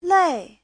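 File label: "lèi", Mandarin Chinese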